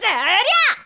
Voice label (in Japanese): shonen5 kougeki